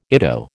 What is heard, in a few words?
"ITO", American